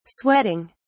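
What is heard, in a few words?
"sweating", British English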